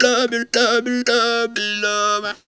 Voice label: "love love", Funky Vocals; USB Soundscan vol.18; AKAI